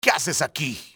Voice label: what are you doing, enemy spanish soldier; XBOX